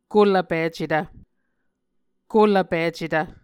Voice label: "kolvpicetv", Muscogee